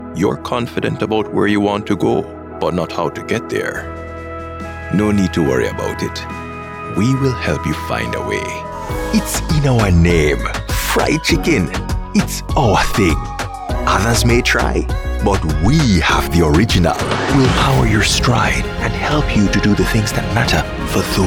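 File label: Jamaican Standard English voice over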